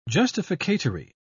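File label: "justificatory", British English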